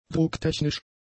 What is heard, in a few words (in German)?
"drucktechnisch", German; adjectives